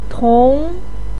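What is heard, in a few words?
"tong2", Mandarin Chinese, pinyin; 刺桐; cì tóng; Indian coral tree; sunshine tree; tiger's claw; Erythrina variegata (botany)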